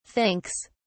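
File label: "thinks", British English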